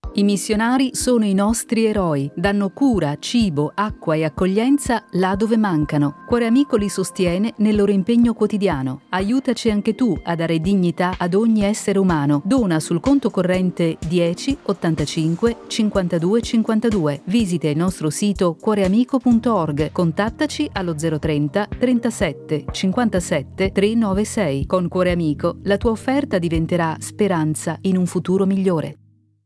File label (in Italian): spot radiofonico; cuoreamico